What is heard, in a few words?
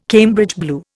"Cambridge blue", Indian